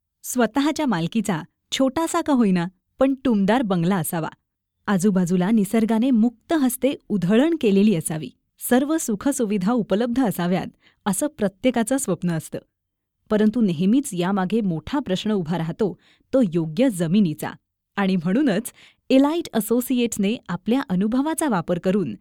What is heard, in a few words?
marathi